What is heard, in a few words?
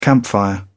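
"campfire", British English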